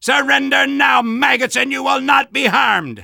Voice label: Soldier taunts; Surrender now maggots and you will not be harmed!